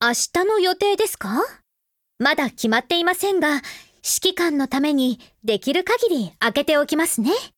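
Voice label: affinity Japanese; Springfield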